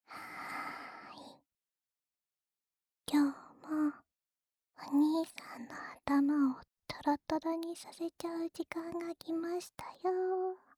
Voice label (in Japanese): 耳舐めループ（左側), ASMR; オナニー用の耳舐めループ